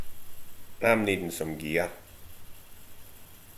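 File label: "needin", slang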